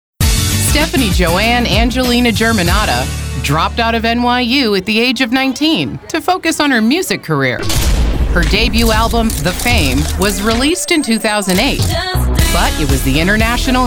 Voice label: TV Narrations